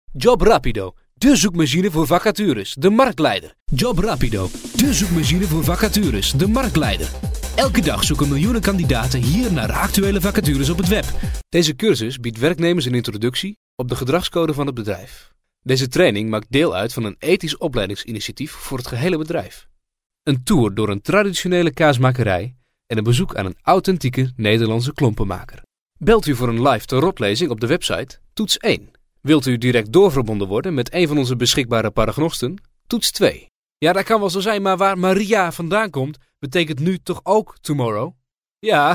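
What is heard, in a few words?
Dutch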